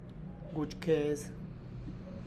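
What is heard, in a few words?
low moisture in the soil, Tlacochahuaya Zapotec; gutx kez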